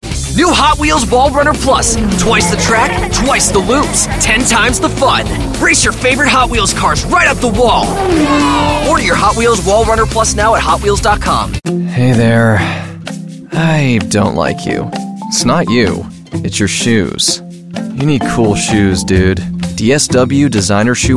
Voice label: commercial